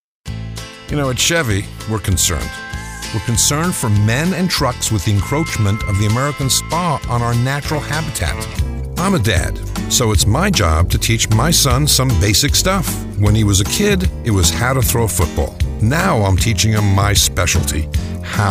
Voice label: COMMERCIAL